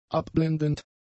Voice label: "abblendend", German; adjectives

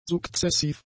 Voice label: "sukzessiv", German; adjectives

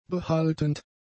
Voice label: "behaltend", German; adjectives

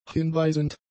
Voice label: "hinweisend", German; adjectives

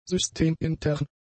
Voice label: "systemintern", German; adjectives

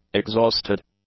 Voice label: "exhausted", english; adjectives

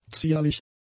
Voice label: "zierlich", German; adjectives

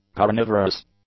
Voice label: "carnivorous", English; adjectives

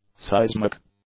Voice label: "seismic", English; adjectives

